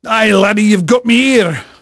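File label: English male, voice; Pirates Of The Caribbean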